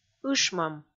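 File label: "ышмӧм", Komi, female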